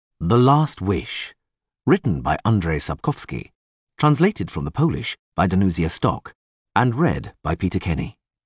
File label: Intro, Audiobook; The Witcher Saga; The Last Wish